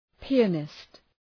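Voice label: "pianist", English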